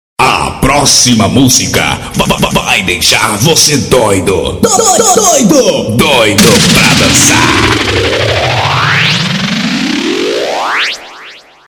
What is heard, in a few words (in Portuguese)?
vinheta